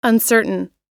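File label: "uncertain", English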